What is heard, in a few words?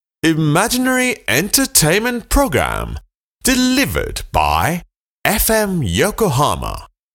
en